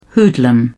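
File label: "hoodlum", British English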